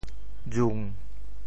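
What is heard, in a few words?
"jung7", Teochew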